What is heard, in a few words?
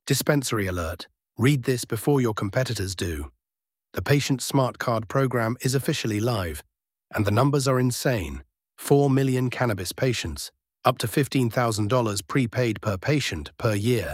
social voiceover